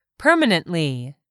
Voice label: "permanently", english; 3000 words